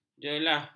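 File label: "jelah", Malay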